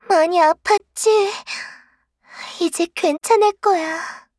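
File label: kill Player, Voice Line, Korean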